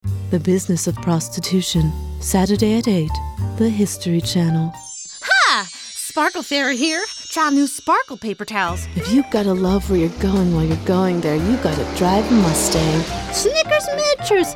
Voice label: voice over